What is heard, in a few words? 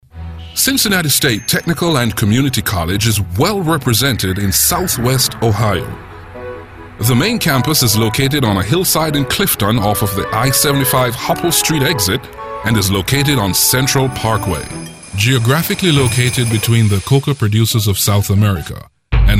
Documentaries